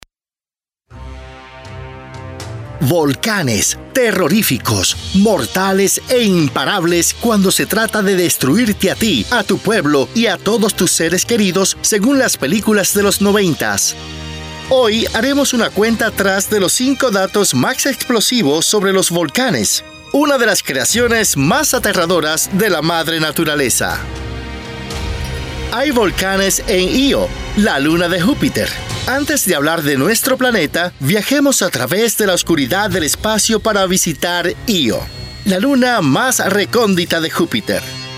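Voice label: volcans documentary Spanish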